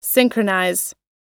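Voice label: "synchronize", US English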